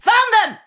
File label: Player Spotted Ranged, Battle Barks; Tau; Tau Dax